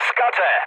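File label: bailout, mood low, Polish speech; War Thunder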